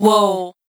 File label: Wow - Dry, Vocal Samples; Words